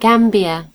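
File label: "gambia", British English; gcompris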